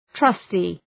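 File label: "trusty", English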